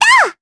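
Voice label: Vox Attack, Japanese; Luna; kingsraid; voices heroes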